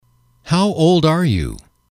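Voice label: "how old are you", English, male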